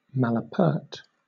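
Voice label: "malapert", British English; pronunciations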